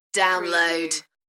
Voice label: "Download", UK female; beanstalk audio

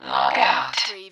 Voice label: Robot voice, log out; lynne publishing

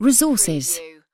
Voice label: UK female "resources"; lynne publishing

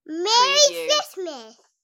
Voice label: Kid Says Merry Xmas

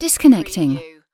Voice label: UK female disconnecting; lynne publishing

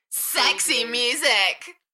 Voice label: UK female Enthusiastic; beanstalk-audio

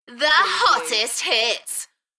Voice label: UK female, The hottest hits, Enthusiastic; beanstalk audio

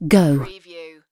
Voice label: UK female "go"; lynne publishing

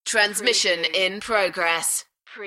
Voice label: UK female, Transmission in progress; beanstalk audio

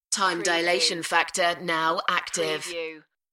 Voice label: UK female; beanstalk-audio

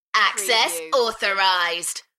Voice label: UK female Access authorized Enthusiastic; beanstalk audio

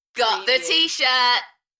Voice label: UK female Got the t shirt Enthusiastic; beanstalk audio

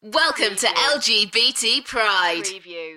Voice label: UK female, Welcome to lgbt pride, Enthusiastic; beanstalk audio

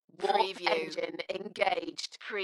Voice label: UK female, Warp engine engaged, Dalekness; Tech / Sci-fi; beanstalk audio

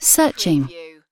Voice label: "searching", UK female; lynne publishing

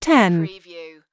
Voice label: US female phone prompt; lynne publishing

